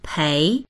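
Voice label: "pei2", Chinese; 陪; お供する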